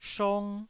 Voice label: "shong1", taiwanese